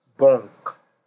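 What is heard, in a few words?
"bunk", Nigerian Pidgin, male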